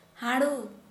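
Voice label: Santali, female, black monkey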